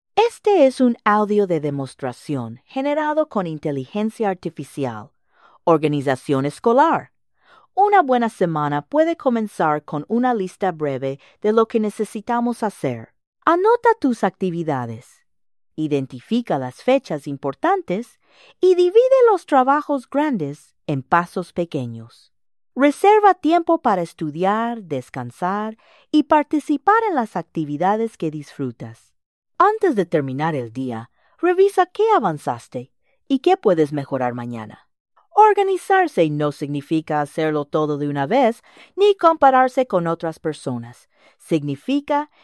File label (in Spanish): podcast